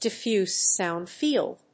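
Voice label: "diffuse sound field", English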